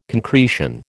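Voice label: "concretion", American English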